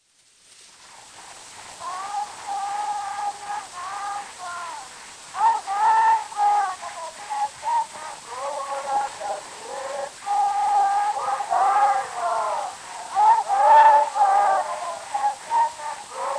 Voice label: Zulu Recordings; Father Franz Mayr